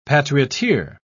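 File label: "patrioteer", British English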